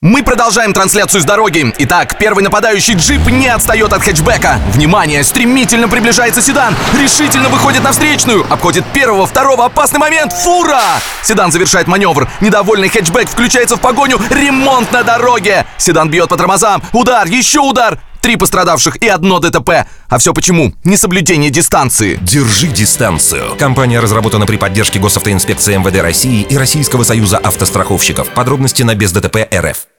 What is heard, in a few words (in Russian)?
Kommentator